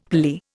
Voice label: "phalli", Indian; Dictionary

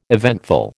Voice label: "eventful", American

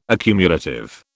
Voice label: "accumulative", British

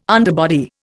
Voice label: "underbody", Indian

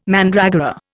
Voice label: "mandragora", Indian

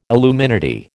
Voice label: "illuminati", American

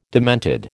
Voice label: "demented", American

